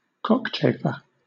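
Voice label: "cockchafer", British English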